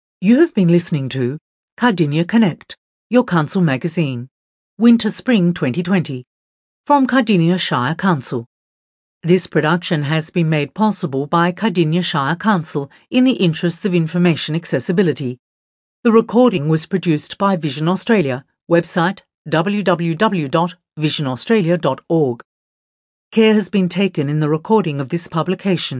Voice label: closing announcement